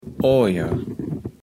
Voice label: "Ojer", Platt

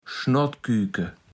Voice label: "Schnodküke", platt

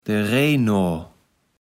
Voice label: "de Rejnoa", kaevels platt

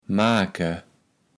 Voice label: "make", kaevels platt

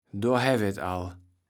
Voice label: "dorhäwe tall", kaevels platt

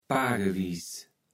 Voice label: "paargewies", kaevels platt

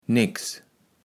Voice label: "neks", kaevels platt

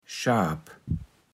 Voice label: "Schaap", Platt

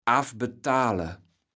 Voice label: "affbetale", kaevels platt

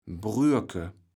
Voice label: "Brürke", kaevels platt

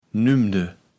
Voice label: "nümde", kaevels platt

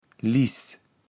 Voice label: "Liß", kaevels platt

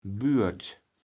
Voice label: "Bürt", kaevels platt

